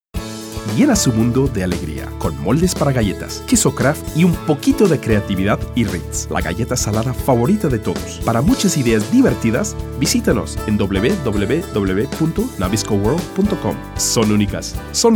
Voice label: spanish males

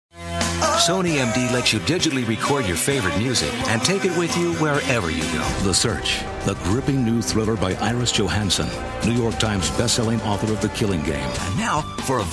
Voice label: English, english males

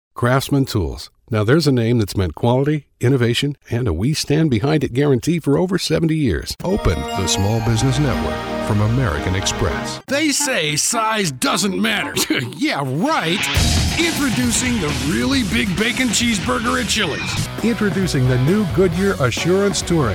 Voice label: English, english males

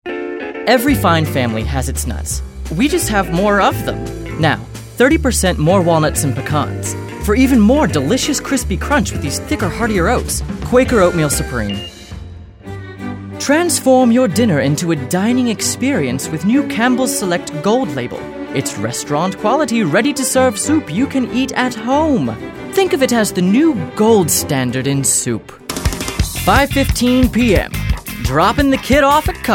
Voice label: english males

